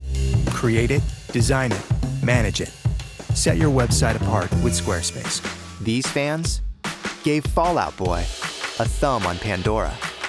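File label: Commercial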